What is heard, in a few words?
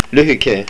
Short, estonian